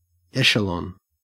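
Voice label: "echelon", Australian English; pronunciation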